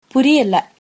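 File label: no understand, Tamil, female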